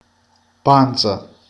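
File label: "pancia", Italian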